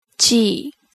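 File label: "chii", Japanese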